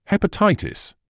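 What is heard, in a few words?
"hepatitis", British English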